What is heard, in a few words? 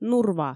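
"нурва", Komi, female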